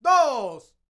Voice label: MEXICO, MEXICAN; ILIO; Vocal Planet VOL-5